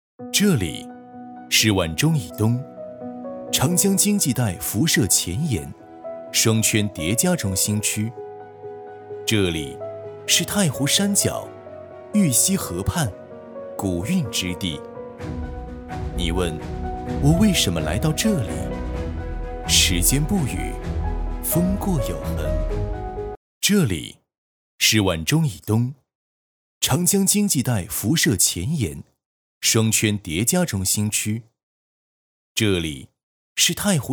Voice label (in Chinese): 男 科技感; 寻秘林头